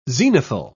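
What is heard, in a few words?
"zenithal", British English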